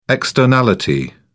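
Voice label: "externality", British English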